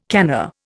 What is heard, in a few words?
"canna", Indian